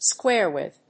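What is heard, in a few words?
"square with", English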